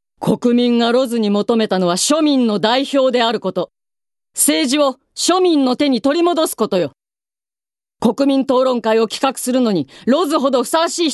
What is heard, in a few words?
female voice